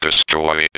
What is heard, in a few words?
Destroyed (male); Space Spartans